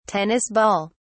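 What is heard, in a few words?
"tennis ball", British English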